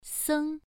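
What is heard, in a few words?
"seng" Mandarin Chinese, first tone, pronunciation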